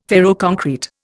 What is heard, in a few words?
"ferroconcrete", Indian